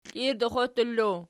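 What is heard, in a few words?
stepdaughter, nominative, Archi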